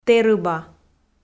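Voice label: "тэрыба", Komi, female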